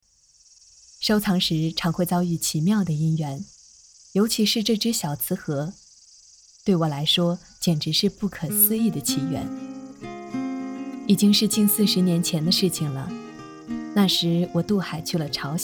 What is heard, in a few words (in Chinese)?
书解 温暖